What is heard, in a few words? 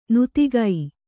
"ನೀತಿಕೆ", Kannada